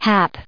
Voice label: "hap", en us